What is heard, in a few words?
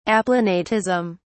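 "aplanatism", British English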